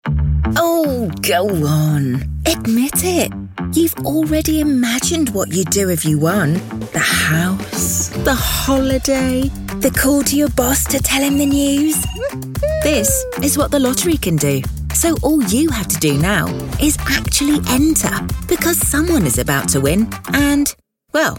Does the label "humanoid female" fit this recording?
No